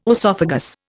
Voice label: "oesophagus", Indian

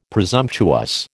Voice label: "presumptuous", American

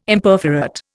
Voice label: "Imperforate", Indian

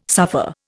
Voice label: "suffer", Indian

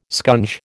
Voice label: "scunge", American

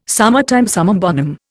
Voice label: "summertime summum bonum", Indian

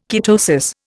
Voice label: "ketosis", Indian

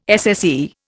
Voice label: "SSE", Indian

